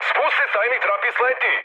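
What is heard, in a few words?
Serbian bailout mood high, speech; War Thunder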